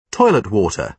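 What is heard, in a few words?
"toilet water", British English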